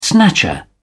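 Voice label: "snatcher", British English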